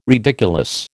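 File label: "ridiculous", American English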